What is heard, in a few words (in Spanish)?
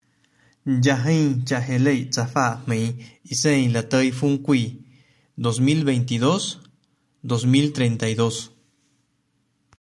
chinanteco; Decenio de las lenguas